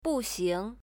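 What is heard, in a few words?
"jin buxing", Chinese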